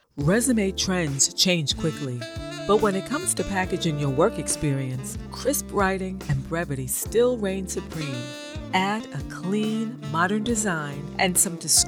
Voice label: E-learning; Voice Over